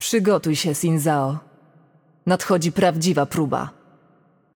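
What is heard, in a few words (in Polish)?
Game Outro, Polish; pl PL; bloom minigame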